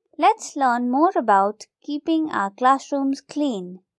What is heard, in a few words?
girl talking; our environment